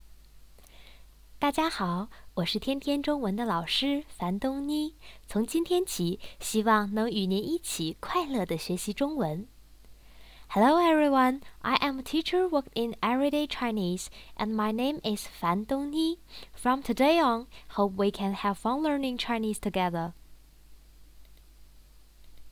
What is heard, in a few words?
Everyday Chinese self-introduction